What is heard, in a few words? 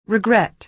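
"regret", American English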